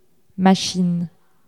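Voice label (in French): "machine", French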